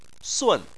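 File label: "sun", Chinese, fourth tone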